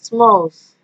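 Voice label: "smalls", Nigerian Pidgin, female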